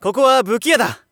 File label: shop youngman; buki